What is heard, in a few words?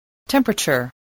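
"temperature", English word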